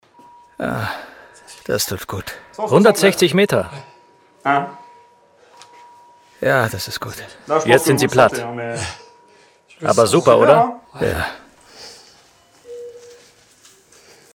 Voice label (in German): dokumentation; arte; ein herz schlaegt wieder